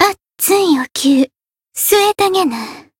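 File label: limit break, Japanese; hoozuki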